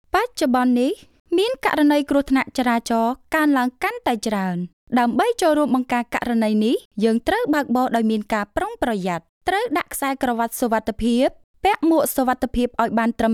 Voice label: IVR; voiceover-cambodia